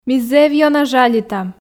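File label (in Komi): ми зэв ёна жалитам, Komi, female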